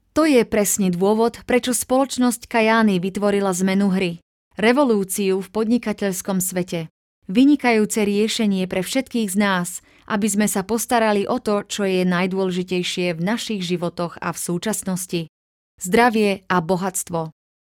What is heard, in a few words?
narration